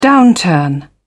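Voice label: "downturn", British English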